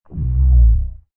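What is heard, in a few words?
voice FX monster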